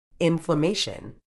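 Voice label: "inflammation", British English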